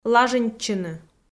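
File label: "лажинтчыны" Komi, female; kpv audio dict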